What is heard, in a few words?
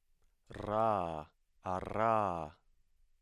voiced alveolar trill